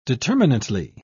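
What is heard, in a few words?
"determinately", British English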